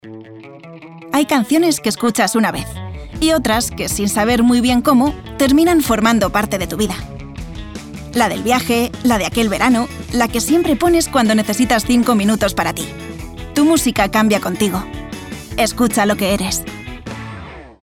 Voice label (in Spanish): generica natural